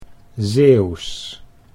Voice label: "Zeeuws", Dutch